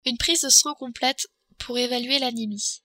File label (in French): prise complete, french